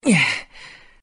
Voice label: "yeah", relief